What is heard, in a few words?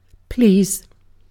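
"please", British English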